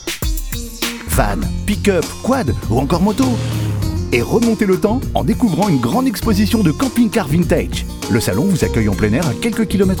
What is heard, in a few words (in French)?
spot aventure; salon vehicule aventure